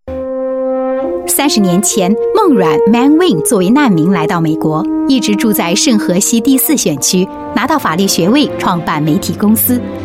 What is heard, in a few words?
Mandarin Commercial, China Female